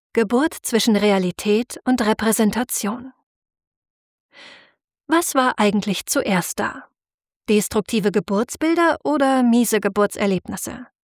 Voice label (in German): HOERBUCH sachbuch; geburt zwischen realitaet und repraesentation